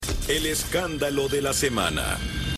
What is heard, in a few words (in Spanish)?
escandalo voz